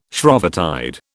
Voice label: "Shrovetide", British